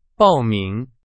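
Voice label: Chinese, vocabulary; hsk2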